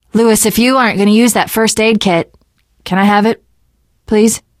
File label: player suggest health specific, survivor voice teen girl; source engine; left 4 dead 2